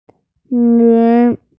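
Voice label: "min2", Mandarin Chinese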